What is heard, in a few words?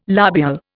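"lobule", Indian English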